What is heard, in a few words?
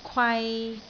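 "kwai3", Cantonese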